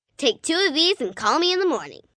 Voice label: Take Two Of These, Adult Phrase, 1 girl, 9 yrs; Talking; Voice Box